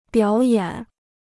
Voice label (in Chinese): "表演", Chinese